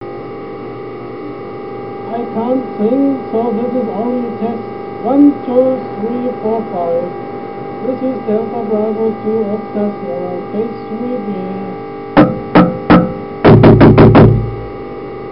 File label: ao40 microphone testing talking to the bird